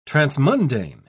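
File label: "transmundane", British English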